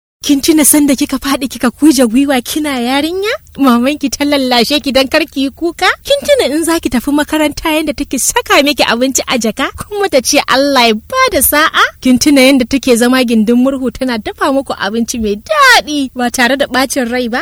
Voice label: HAUSA